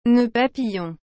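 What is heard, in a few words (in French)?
"nœud papillon", French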